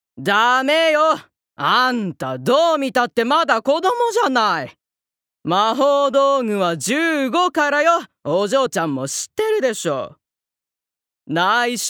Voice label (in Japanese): 外画